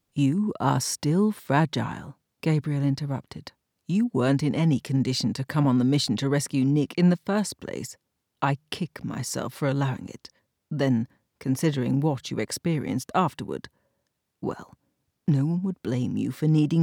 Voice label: Fiction Sample Fantasy Romance